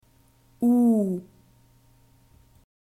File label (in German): "u", German alphabet